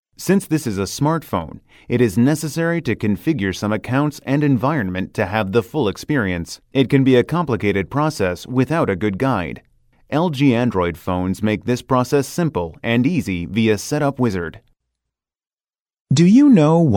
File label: American English M